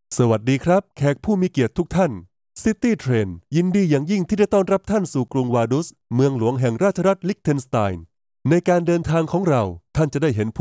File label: Tour Guide Male Clear and Confident